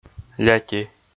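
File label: "ляти", Komi, male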